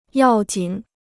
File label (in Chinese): "要紧", Chinese